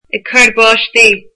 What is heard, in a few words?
rainy, irish